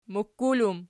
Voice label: "mukkul", Archi

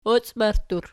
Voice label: "ocibarttur", Archi

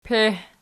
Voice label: "peih", Archi

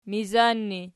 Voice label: "mizan", Archi

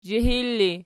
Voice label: "zhihil", Archi

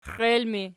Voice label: xiel erg, archi

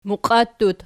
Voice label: "muqiattut", Archi

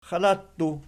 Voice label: "xalattu", Archi

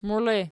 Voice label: "muile", Archi

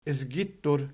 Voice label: "izgittur", Archi

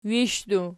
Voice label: wishdu nom, archi

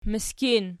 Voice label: "miskin", Archi

